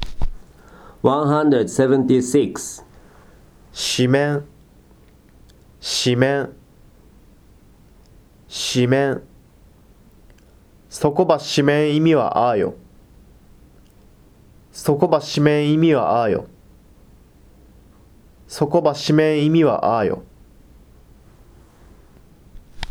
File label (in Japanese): "shimeN", hogen